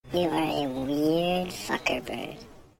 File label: Helium